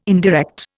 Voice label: "indirect", Indian